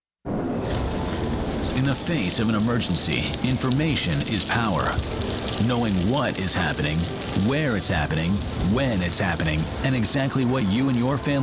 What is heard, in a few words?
FM ALERT READY PROMO; KOOL FM